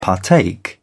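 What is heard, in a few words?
"partake", British English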